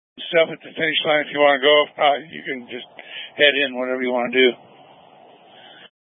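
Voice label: Incident Tactical, scanner audio recording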